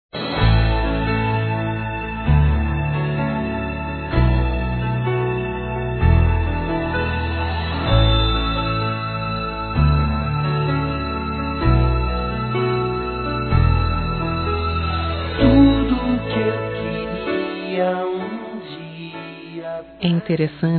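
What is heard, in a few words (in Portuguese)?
sempre pensando em voce, feminino; Telemensagens; Românticas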